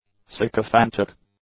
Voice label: "sycophantic", English; adjectives